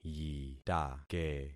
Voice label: "yitake", concat syllables; Affixing experiment